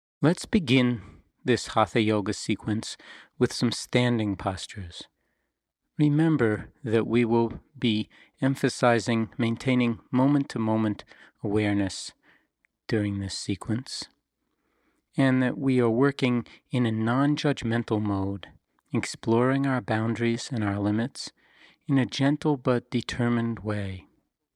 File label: Guided Mindfulness Meditation